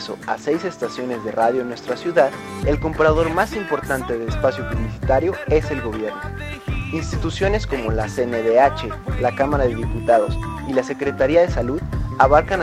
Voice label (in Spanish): Spot compradores